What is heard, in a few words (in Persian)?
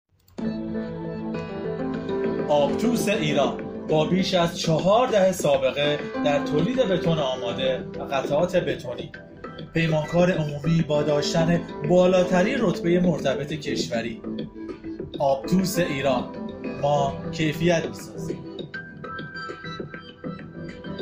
نمونه صدای ضبط شده غیر حرفه ای; آپتوس ایران